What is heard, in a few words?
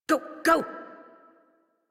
Horse Sprint Adult; Warframe; Duviri